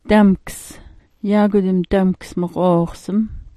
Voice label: "damks", smalgyax